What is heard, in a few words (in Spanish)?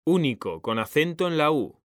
"ÚNICO", H; mekanta